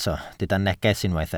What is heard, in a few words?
"neges", Welsh